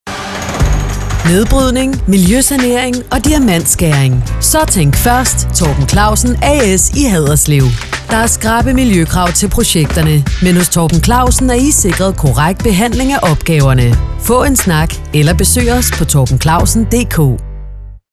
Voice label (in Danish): radiospot